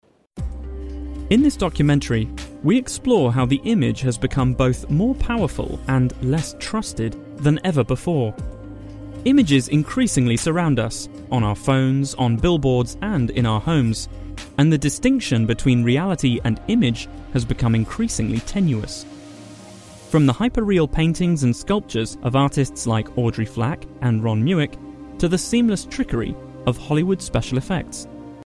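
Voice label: English UK male docu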